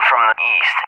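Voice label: "from the east", English, USA; DCS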